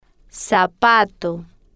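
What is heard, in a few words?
"zapato", Spanish, female, slow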